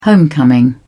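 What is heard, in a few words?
"homecoming", British English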